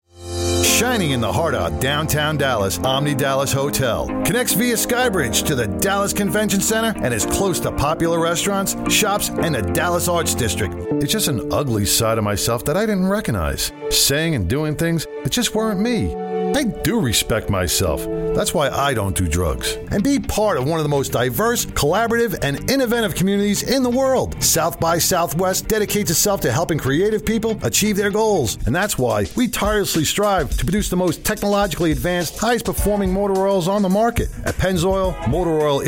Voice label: Voice over